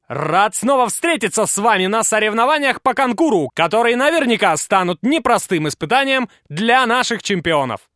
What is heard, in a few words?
narr